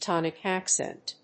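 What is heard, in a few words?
"tonic accent", English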